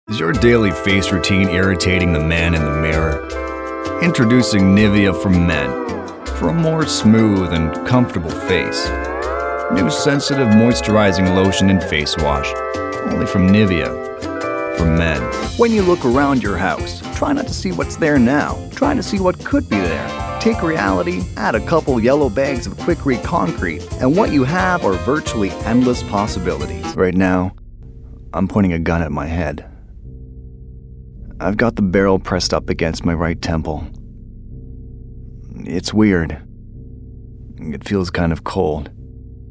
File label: commercial male